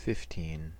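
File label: "fifteen", US English; pronunciations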